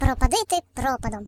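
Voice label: "Drat", British English; hedgewars; Default uk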